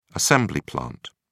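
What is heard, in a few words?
"assembly plant", British English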